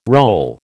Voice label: "roll", American

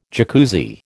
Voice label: "Jacuzzi", American

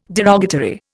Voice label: "derogatory", Indian

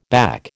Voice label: "back", American

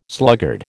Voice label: "sluggard", American

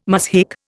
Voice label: "muzhik", Indian

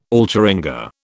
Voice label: "alcheringa", British

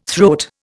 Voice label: "throat", Indian

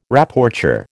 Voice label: "Rapporteur", American

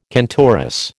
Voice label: "cantoris", American

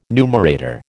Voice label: "numerator", American

